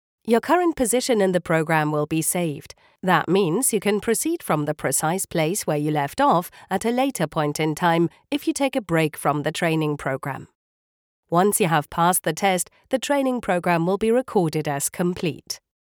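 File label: British elearning; engelsk-uk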